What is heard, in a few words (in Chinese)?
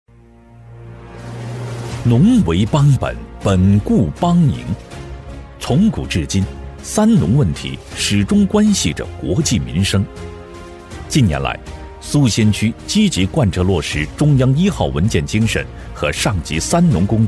男, 农业专题, 大气高端; 苏仙区农业专题片; 稳重底蕴 大气浑厚; 农业专题《苏仙区农业专题片》-大气高端